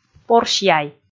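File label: "порсь яй", Komi, female; kpv audio dict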